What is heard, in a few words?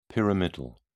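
"pyramidal", British English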